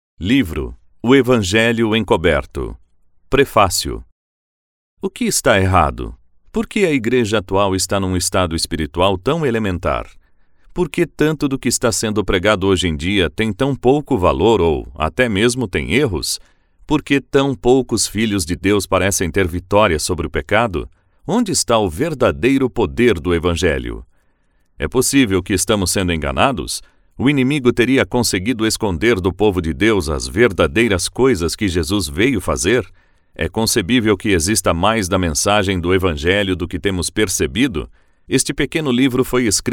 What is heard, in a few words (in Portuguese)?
voz audiobook; o evangelho encoberto capitulo prefacio